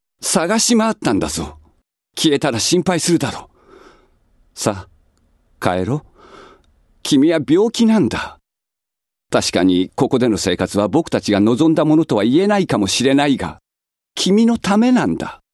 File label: male voice